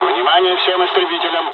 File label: dialogs russian; War Thunder